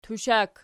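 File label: "tushak" nominative, Archi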